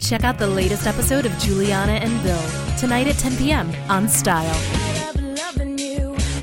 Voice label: promo; TaDa

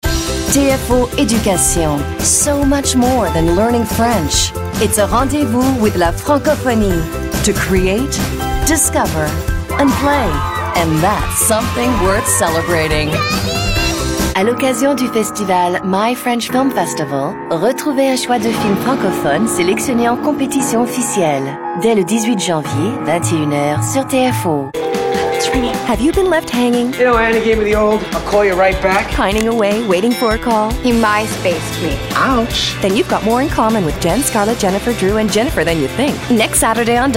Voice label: Bilingual Promo; TaDa

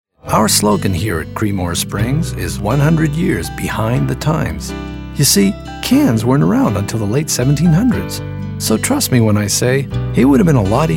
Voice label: Commercial; TaDa

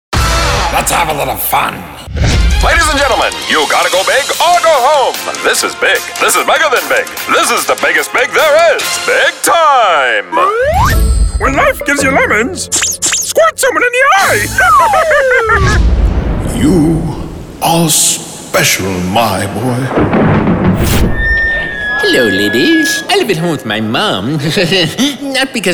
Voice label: animation

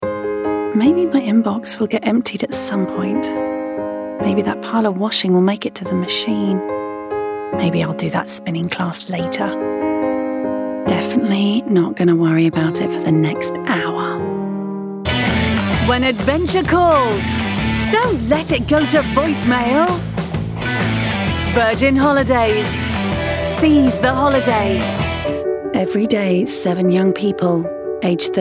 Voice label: British Commercial; TaDa

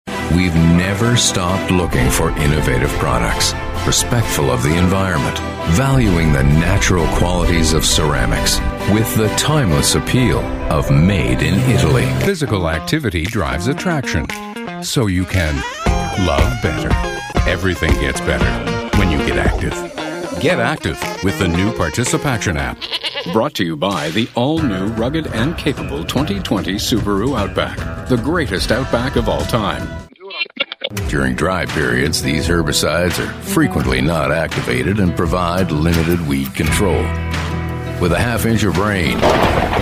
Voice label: commercial; Tada

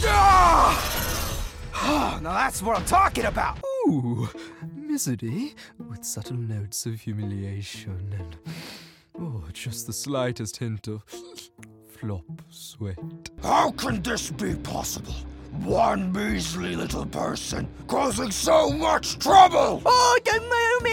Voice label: Character

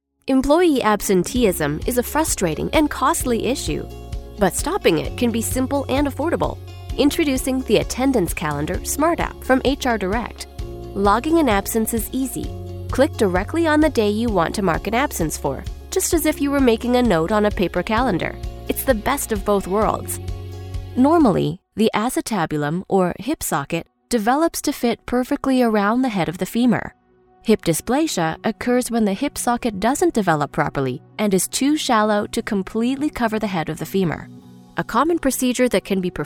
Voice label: Narration; TaDa

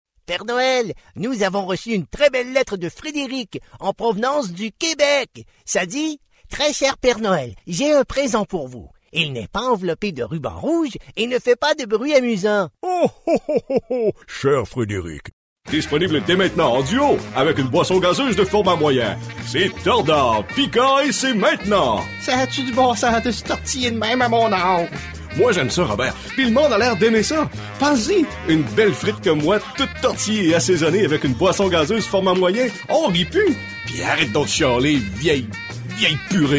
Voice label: French Character; TaDa

